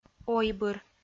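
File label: "ойбыр", Komi, female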